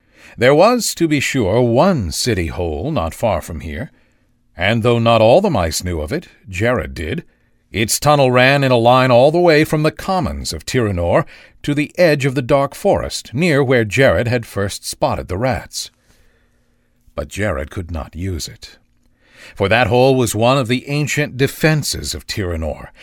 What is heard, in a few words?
Audiobook